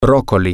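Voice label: broccoli, spanish, male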